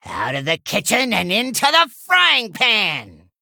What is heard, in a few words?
Male Gnome